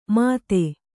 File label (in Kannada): "ಮತ್ತೆ", Kannada